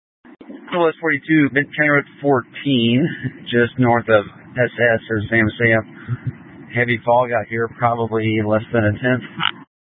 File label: Dispatch, Scanner audio